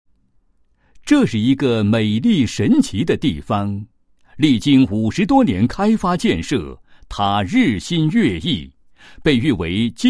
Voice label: 男 党建; 党建工作宣传

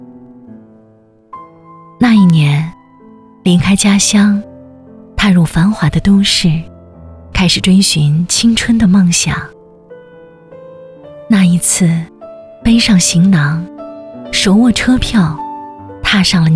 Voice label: 女, 微电影 干声; 芳华

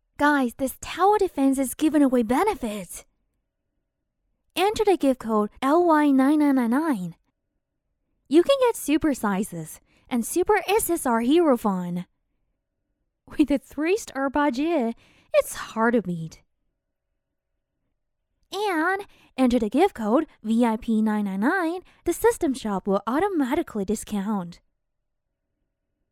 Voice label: 英女 英文女生; 灵妖

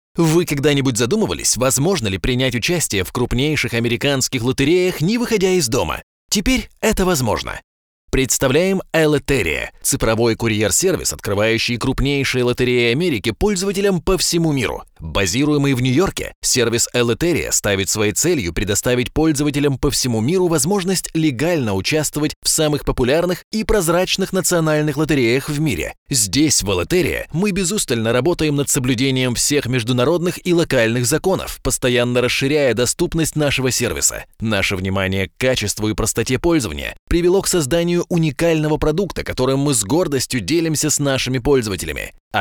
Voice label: 俄语宣传片 轻快

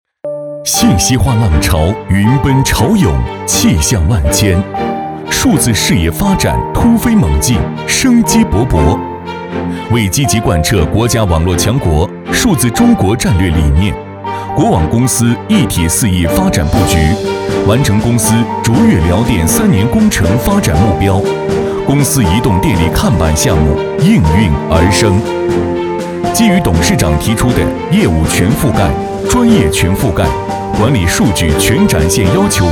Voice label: 男 科技感; 辽宁国网

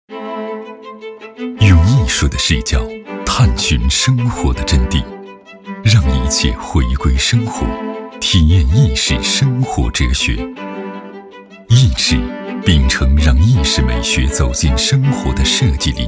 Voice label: 男 广告 品牌 片 品质高端; 意驰

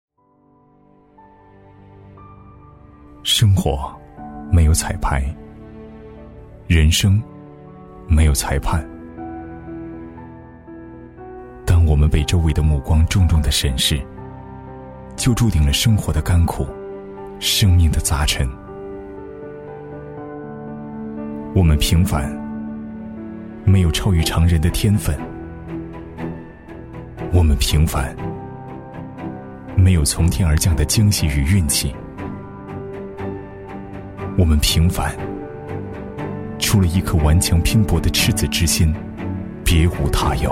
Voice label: 男-励志独白; 爱理想

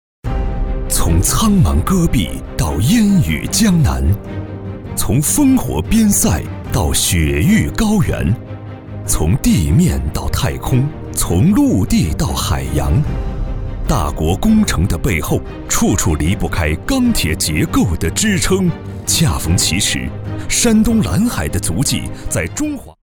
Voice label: 男, 宣传片, 豪华大气

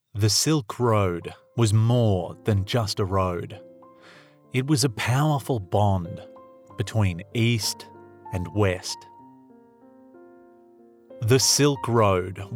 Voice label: 外籍男 丝绸之路故事讲述

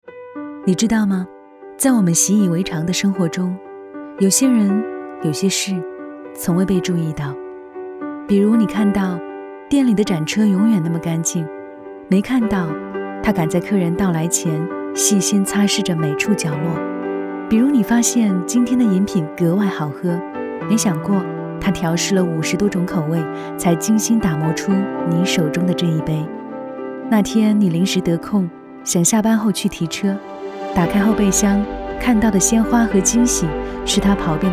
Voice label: 女 自然独白; 奔驰感恩节

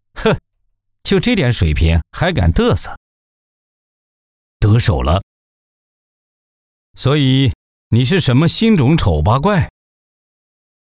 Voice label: 男 角色; 仁叔; 灵骑

